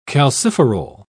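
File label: "calciferol", English, British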